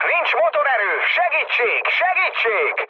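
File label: Hungarian damaged engine mood med, speech; War Thunder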